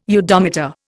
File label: "udometer", Indian